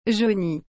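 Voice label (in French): "jauni", French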